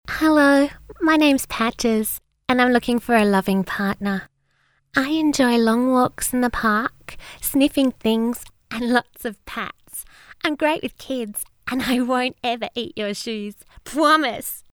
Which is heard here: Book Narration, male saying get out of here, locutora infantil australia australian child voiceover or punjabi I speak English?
locutora infantil australia australian child voiceover